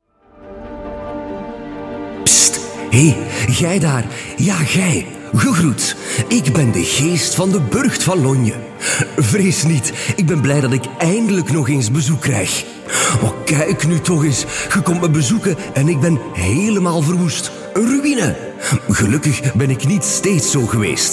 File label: flemish voiceover character